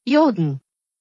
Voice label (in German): "Jürgen", deutsch, jungennamen